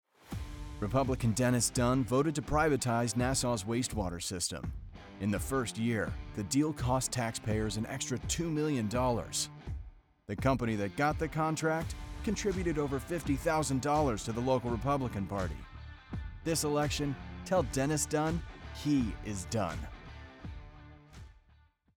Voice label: english american political ads

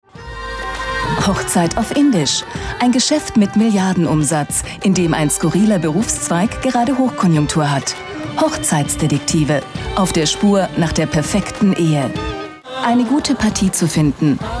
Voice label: german documentaries

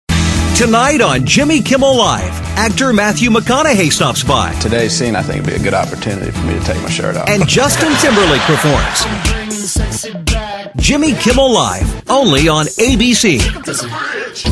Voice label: english american promos

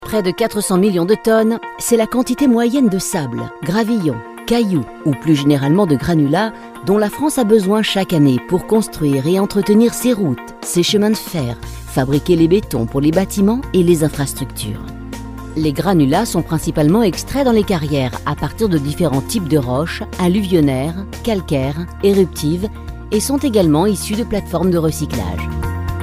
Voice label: french corporate videos

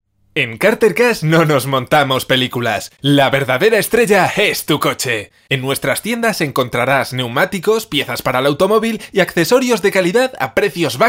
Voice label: spanish commercial; Spanisch; Spanien

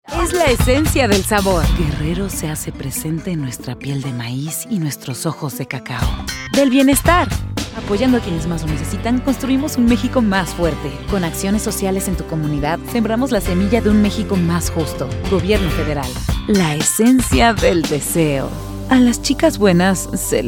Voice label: spanish mexican commercial

